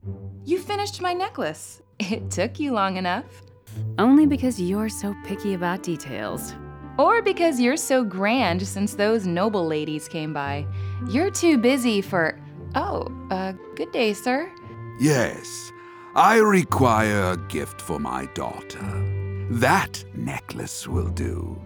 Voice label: Intro Female